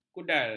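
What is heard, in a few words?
"kudal", Malay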